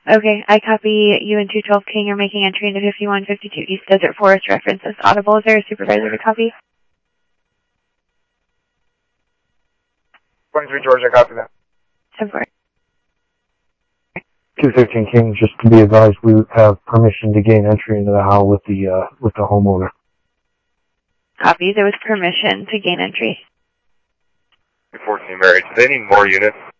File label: Phoenix PD, scanner audio